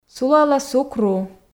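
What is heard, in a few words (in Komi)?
сулалӧ сук ру, Komi, female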